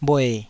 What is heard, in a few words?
"bhue3", taiwanese Syllables